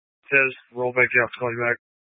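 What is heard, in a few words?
Sheriff Dispatch, Scanner audio recording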